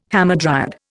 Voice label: "hamadryad", Indian